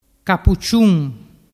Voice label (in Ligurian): "capuciun", dialettu